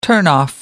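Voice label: "turnoff", British English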